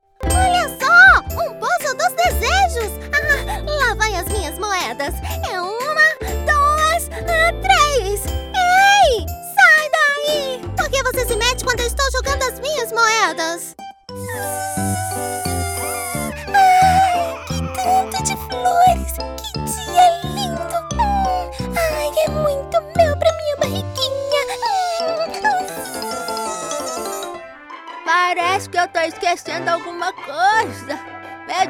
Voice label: Voz original caricata

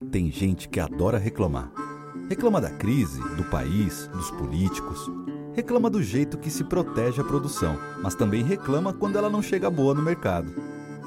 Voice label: Loc Coloquial Natural

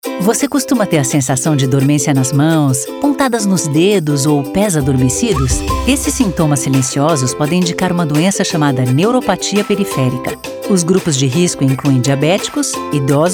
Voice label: Radio conversada

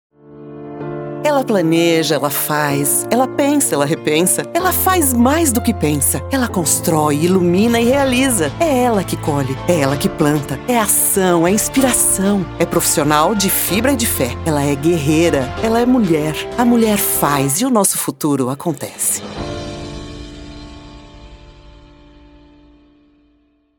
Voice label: Loc Distanciada; GUERREIRA MULHER

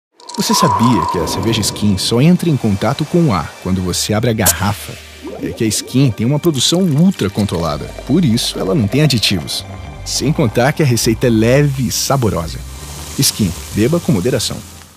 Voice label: SERIO; SCHIN Leve e Saborosa

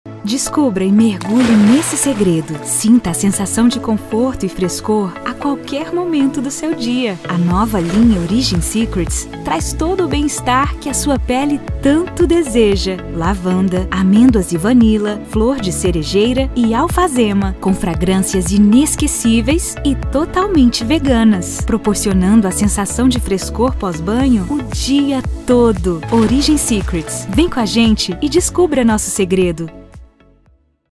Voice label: institucional mais solto; Nazca Cosmeticos

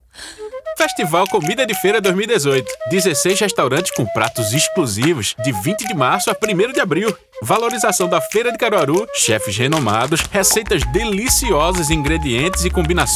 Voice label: Demonstrativo Nordestino

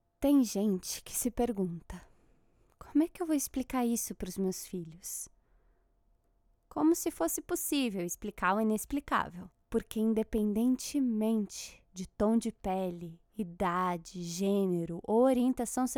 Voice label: loc tranquila

